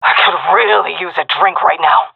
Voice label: scared, seal fem; vo; Counter Strike Model Pack; CS:GO